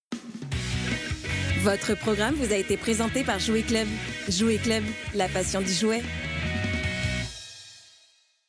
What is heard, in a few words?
French Voice Over